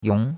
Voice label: "yong2", chinois; Cours-de-Chinois

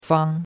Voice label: "fang1", chinois; Cours-de-Chinois

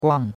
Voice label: "guang4", chinois; Cours-de-Chinois

